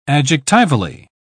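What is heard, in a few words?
"adjectivally", British English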